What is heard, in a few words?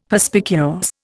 "Perspicuous", Indian; Dictionary